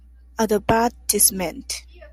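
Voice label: "advertisement", Japanese, female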